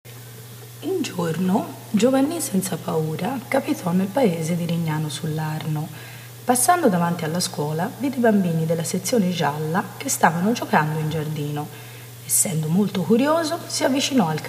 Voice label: italian version, Italian teacher's voice